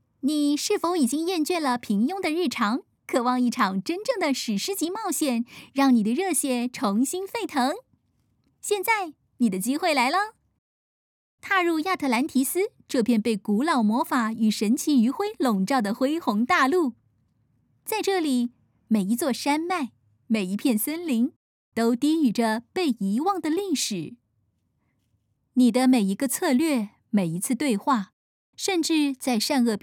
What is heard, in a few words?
foreigner; 中国語